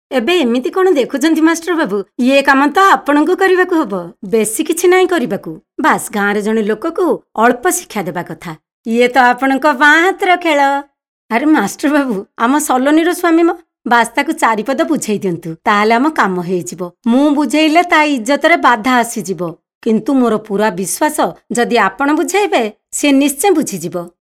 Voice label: Oriya female